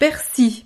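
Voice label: "persil", French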